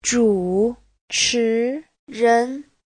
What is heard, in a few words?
"zhu3chi2ren2", chinese; Giáo trình Hán ngữ